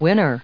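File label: "winner", US English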